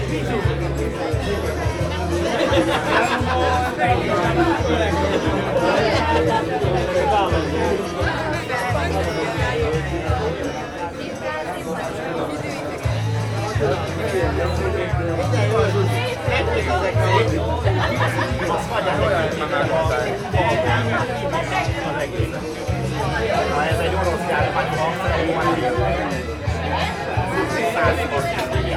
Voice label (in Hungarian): beszelgeto fiatalok, hatterzene, romkocsma; szimplakert